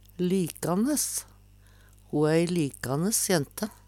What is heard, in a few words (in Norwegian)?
likanes, Numedalsmål; Adjektiv